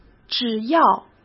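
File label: "只要", Mandarin Chinese; hsk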